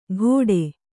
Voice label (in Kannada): "ಘೋಡೆ" Kannada